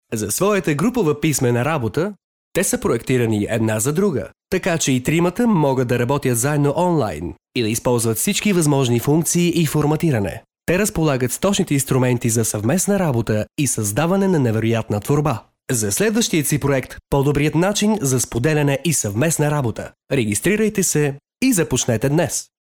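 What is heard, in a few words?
Bulgarian, male, voice over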